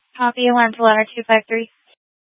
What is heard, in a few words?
Fire Departments, scanner